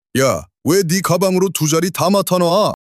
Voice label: anger

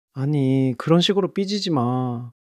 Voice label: sadness

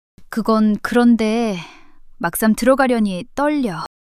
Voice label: shy

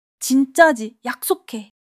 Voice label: excitement

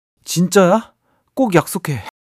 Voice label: excitement

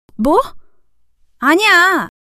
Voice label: fluster

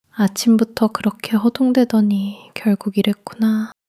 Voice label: sadness

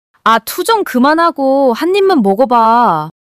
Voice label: anger

